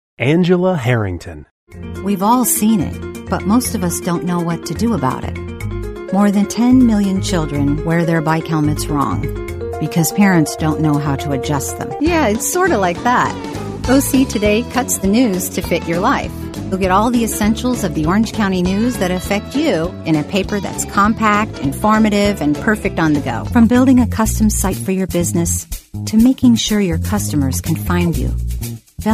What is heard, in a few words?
commercial